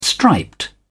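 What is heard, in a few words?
"striped", British English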